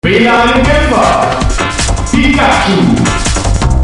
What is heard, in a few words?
announcer german